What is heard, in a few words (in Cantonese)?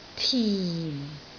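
"tin4", Cantonese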